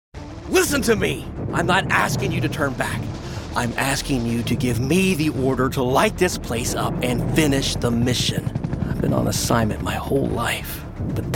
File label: english american video games